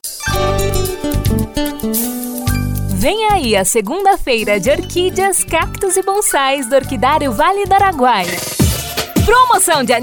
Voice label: demo locução, Brasil; banco de vozes

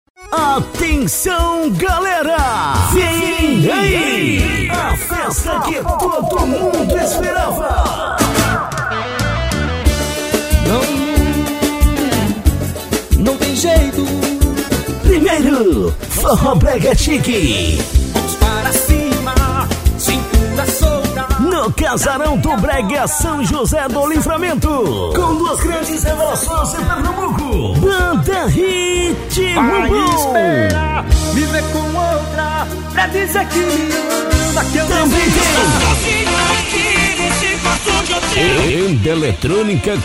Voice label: locutor chamada, mix

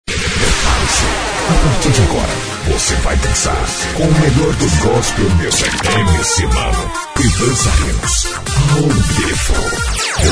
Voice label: spot, aguardem

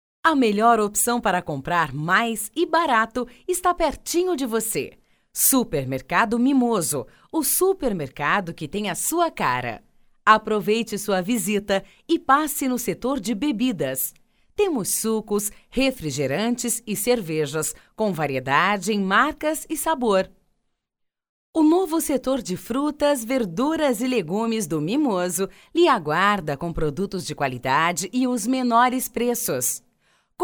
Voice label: radioambiente locutora

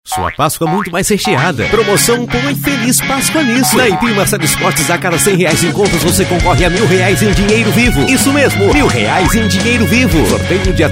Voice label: spot páscoa

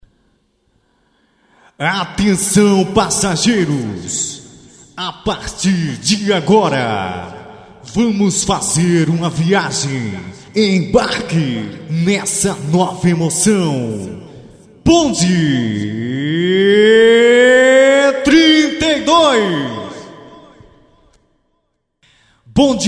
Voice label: vinheta

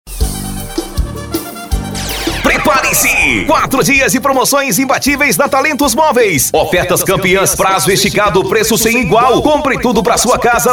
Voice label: VOZ IMPACTO GRAVE vinhetas; para loja de moveis e eletro

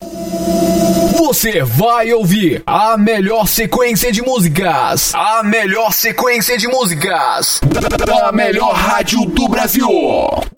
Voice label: vinhetas

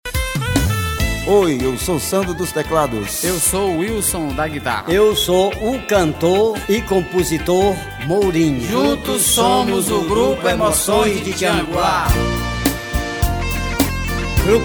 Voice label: spot

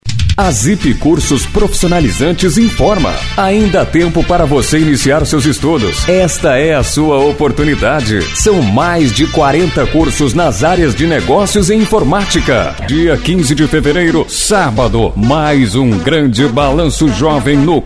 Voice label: locutor padrão institucional coloquial

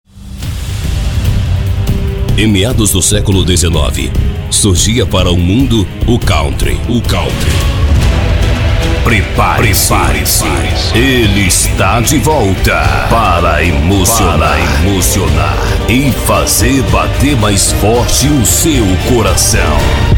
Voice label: voz impacto padrao e jovem gravacoes

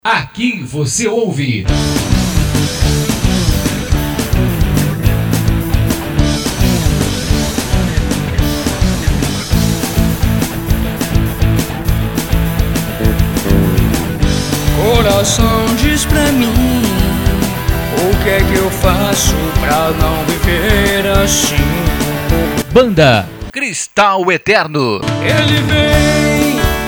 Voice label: spot

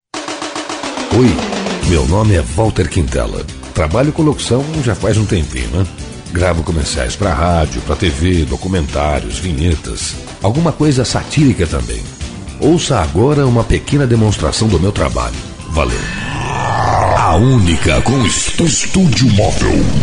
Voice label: locutor

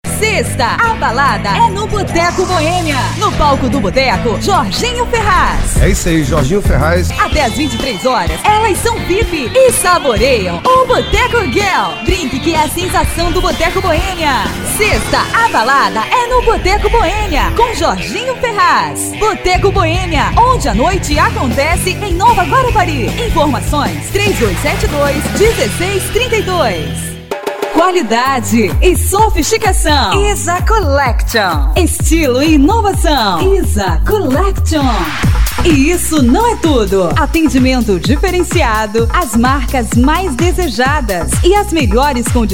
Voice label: voz jovem padrao e coloquial

